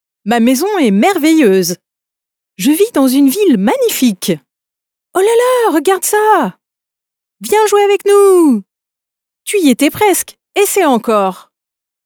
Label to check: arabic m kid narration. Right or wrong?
Wrong